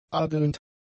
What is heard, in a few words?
"adelnd", german; adjectives